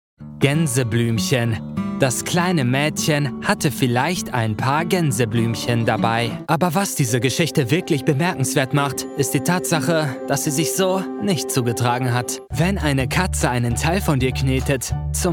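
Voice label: German, male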